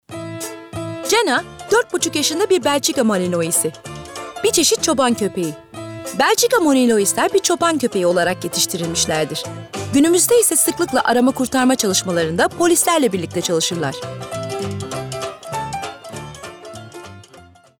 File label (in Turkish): Belgesel